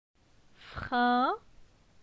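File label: "Frin", French; phonetique; la syllabe in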